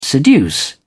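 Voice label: "seduce", British English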